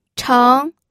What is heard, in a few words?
"cheng2", pinyin